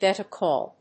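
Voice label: "get a call", English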